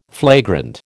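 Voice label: "flagrant", American English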